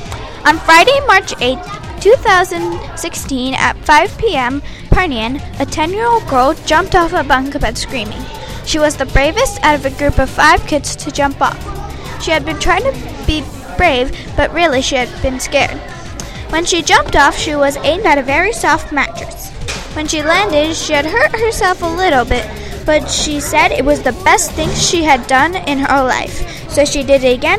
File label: news